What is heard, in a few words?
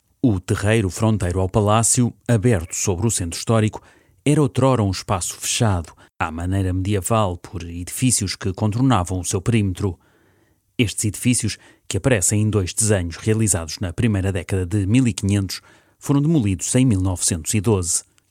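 Portuguese, male, audioguide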